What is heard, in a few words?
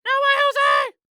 Cartoon Voice No Way Jose Goofy